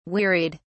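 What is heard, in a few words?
"wearied", British English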